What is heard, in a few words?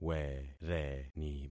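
"wereni", concatenated syllables; Affixing experiment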